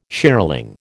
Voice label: "shearling", American

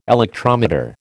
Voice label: "electrometer", American

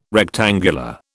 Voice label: "rectangular", British

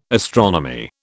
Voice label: "astronomy", British

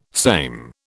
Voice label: "same", British

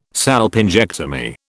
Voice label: "salpingectomy", British

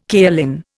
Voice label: "kaolin", Indian

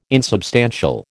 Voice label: "insubstantial", American

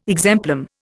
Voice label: "exemplum", Indian

